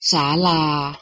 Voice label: "saalaa", Thai